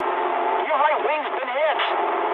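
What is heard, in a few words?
English right wing mood med; speech; War Thunder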